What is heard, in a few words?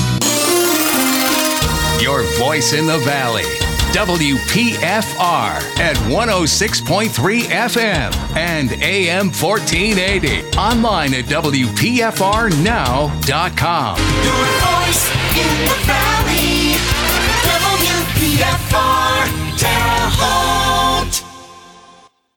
Classic Hits-Gold-Promo Imaging